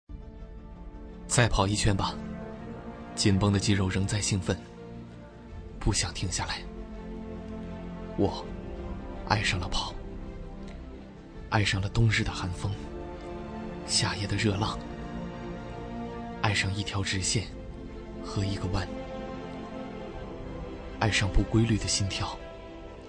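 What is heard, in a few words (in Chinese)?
男广告; 别克威朗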